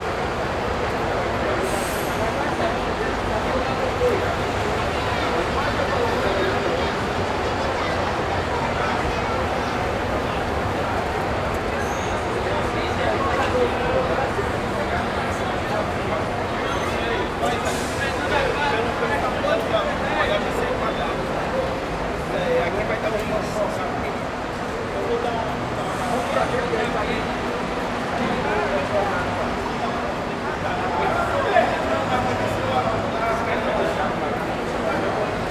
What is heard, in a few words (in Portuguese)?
Ambiencia rodoviaria do plano piloto homens conversado e passos